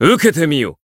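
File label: Voice finish, Japanese; claude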